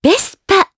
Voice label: Vox, jp; Yuria; Vespa; kingsraid